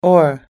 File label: "oar", US English